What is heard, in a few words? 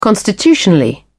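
"constitutionally", English, British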